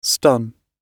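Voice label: "stun" British English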